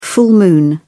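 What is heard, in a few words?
"full moon", British English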